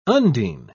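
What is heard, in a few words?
"undine", British English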